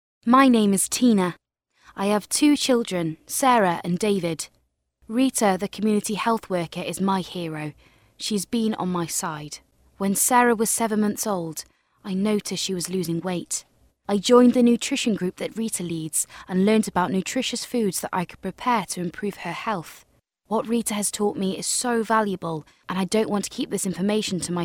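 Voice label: English Welsh female, welsh english voice over talent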